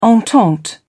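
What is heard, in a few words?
"entente cordiale", British English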